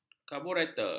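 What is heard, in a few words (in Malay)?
"karburetor", Malay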